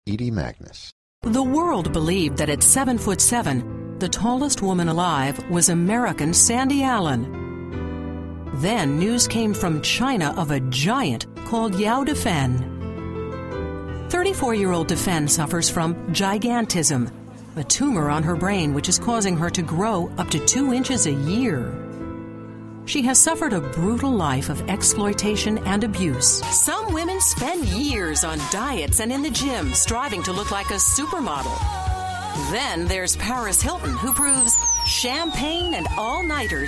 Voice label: narration documentary